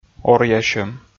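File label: "оръясьӧм", Komi, male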